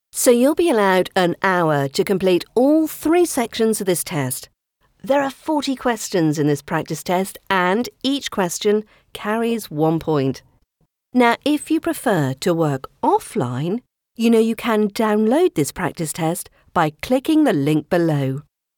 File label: Even More Naturalistic; voiceover styles